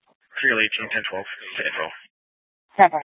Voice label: Dispatch, scanner; Regional Wireless Cooperative (RWC); Scottsdale PD; Simulcast H- Scottsdale; Nth Dispatch